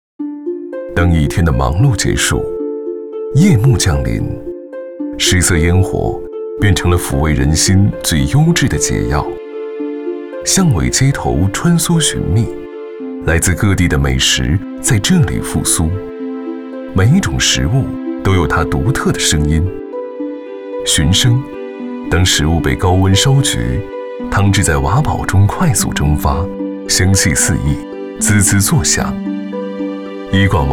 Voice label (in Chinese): 男 舌尖风格, 磁性; 融味啫叭